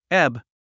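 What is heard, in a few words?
"ebb", English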